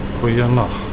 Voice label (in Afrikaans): "Goeienag", Afrikaans; goodnight